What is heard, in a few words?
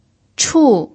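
"chu" fourth tone, Mandarin Chinese pinyin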